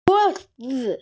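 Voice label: "zhuo1zi5", Mandarin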